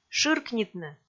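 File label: "шыркнитны", Komi, female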